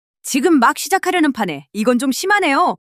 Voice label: anger; monologue

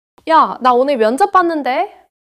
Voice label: neutral, monologue

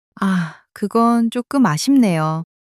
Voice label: sadness, monologue

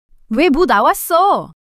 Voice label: fluster, monologue

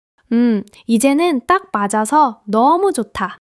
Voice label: joy; monologue

